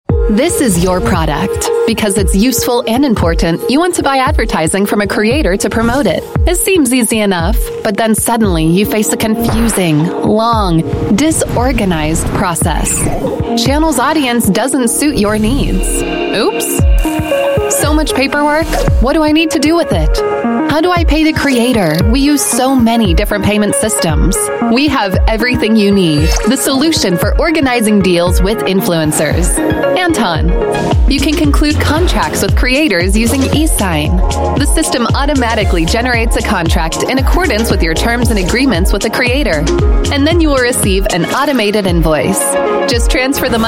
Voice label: US English; Anton Influencers

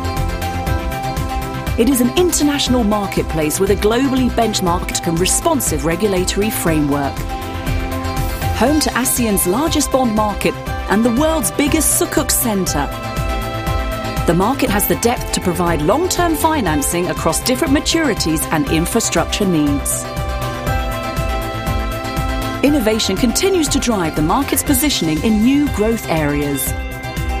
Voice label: UK English Capital Markets, Female; Malaysia

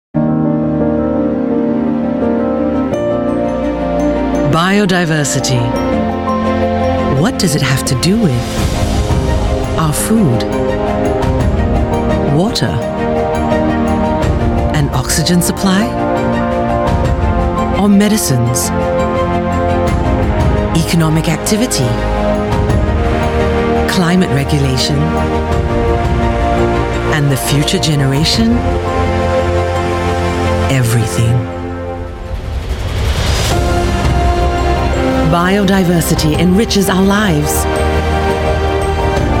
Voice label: Asian English; MSIG Bioviersity Is Everything

